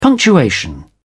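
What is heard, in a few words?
"punctuation", British English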